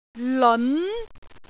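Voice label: "leon2", Cantonese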